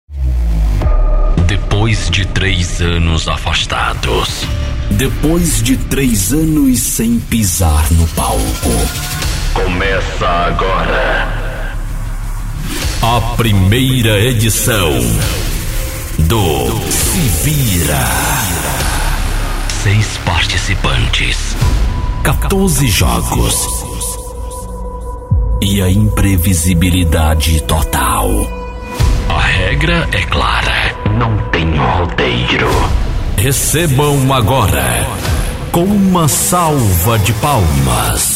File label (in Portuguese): LOCUTOR